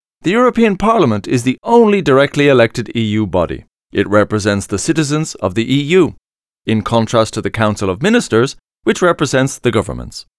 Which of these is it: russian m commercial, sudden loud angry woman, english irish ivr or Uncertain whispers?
english irish ivr